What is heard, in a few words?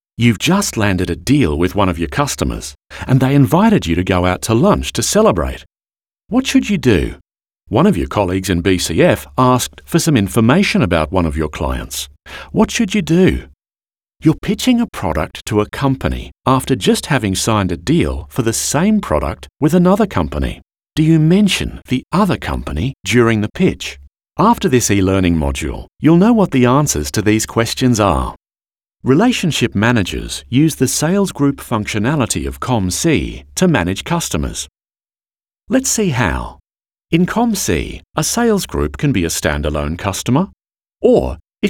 More Australian